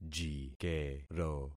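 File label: "jikero", concatenated syllables; Affixing experiment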